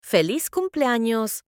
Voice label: happy birthday in spanish